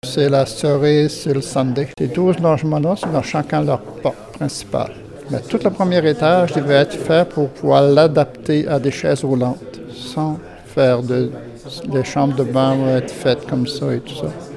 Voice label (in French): VOX POP